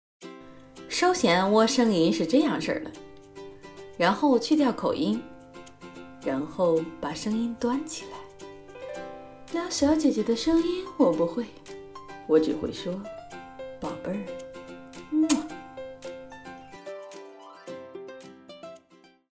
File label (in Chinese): 多变声音